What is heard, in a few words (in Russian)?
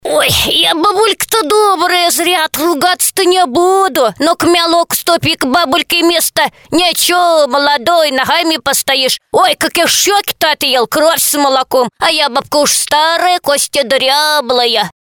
babushka